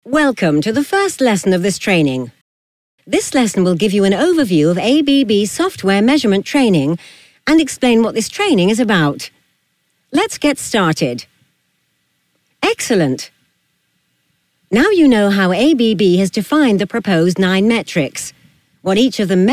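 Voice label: British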